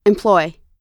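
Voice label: "employ", American English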